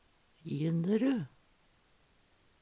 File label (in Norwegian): jynnerud, Numedalsmål; Gyllenrud